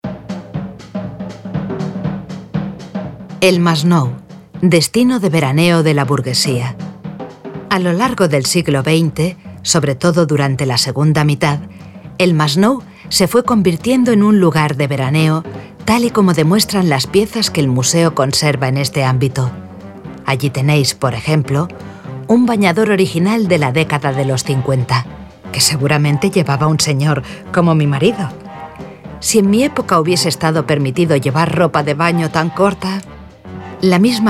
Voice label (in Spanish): audioguia esp